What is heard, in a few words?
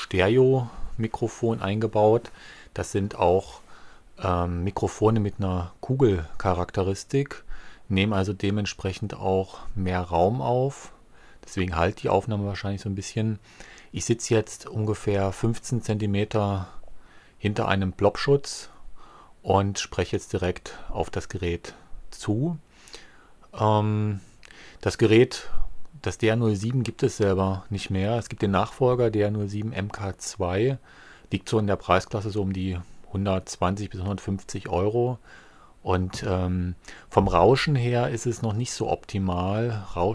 Tascam DR07; Tascam DR-07 (Mono); Wer mit Kopfhörern genau hinhört, wird bei 6s/11s/36s/53s ganz niederfrequente Störungen hören. Das Tascam ist sehr vibrationsempfindlich; Screencasting; Screencasting für Einsteiger und Anfänger